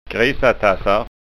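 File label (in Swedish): "grisatassar", Scanian Swedish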